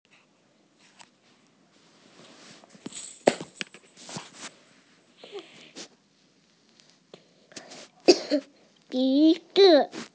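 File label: "bi2zi5", Mandarin Chinese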